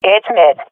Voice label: loc mid, swat fem; vo; agents; Source Model Packs; Counter Strike Model Pack; CS2